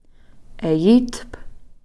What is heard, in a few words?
"eyithph", you all are